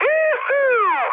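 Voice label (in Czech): Czech trick, mood high; speech; War Thunder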